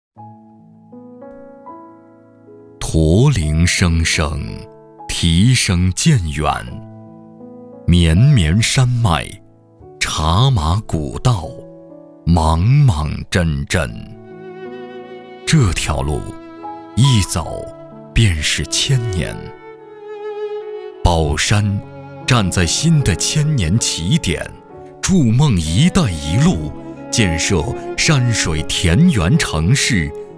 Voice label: 宣传片

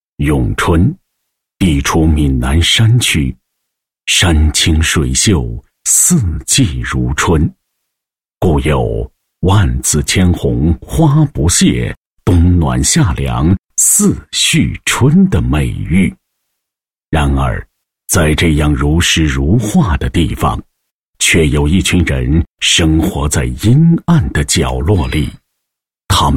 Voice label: 男 专题 社区戒毒所宣传

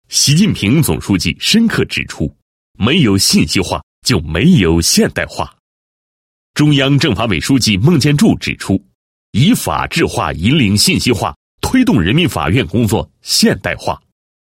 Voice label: 男 法院 法院执行指挥系统建设专题, 国语男声配音; 大气专题

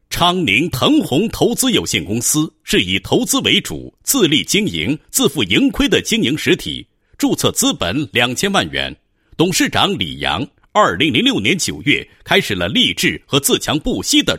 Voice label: 男 公司企业 投资有限公司简介; 腾宏投资有限公司

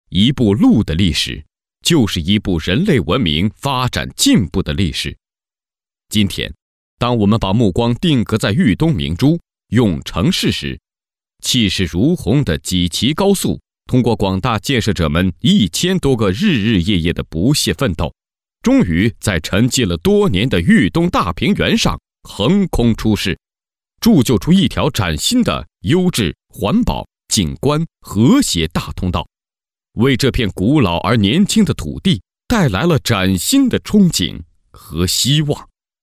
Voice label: 国语男声配音, 专题; 济祁高速

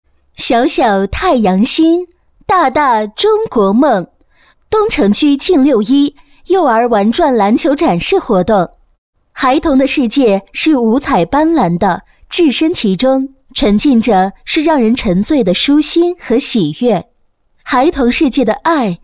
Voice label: 女 专题 东城区学前教育宣传月系列活动, 国语女声配音

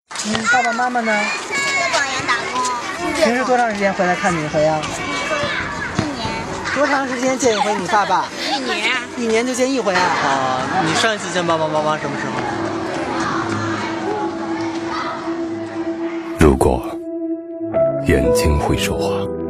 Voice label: 男声 公益广告 深情; 留守儿童公益广告

